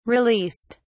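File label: "released", British English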